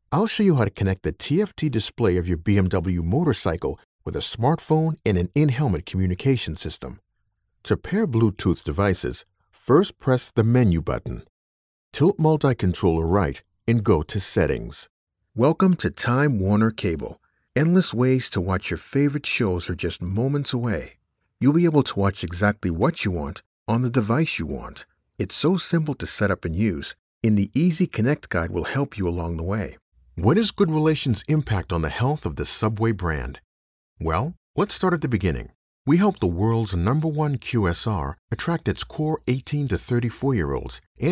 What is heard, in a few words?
e-Learning